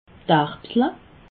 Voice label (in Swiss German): "erbsle", alsace